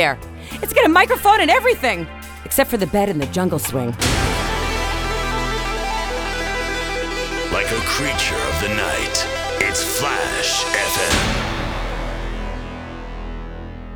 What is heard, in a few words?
Party Announcement